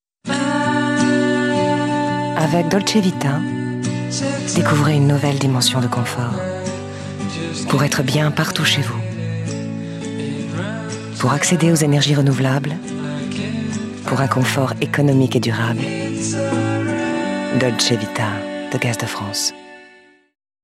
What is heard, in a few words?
french commercial; France; French native voice actress